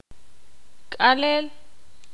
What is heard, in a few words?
ixil; amarrar